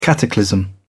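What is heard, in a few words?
"cataclysm", British English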